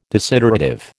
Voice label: "desiderative", American